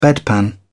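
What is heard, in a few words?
"bedpan", English, British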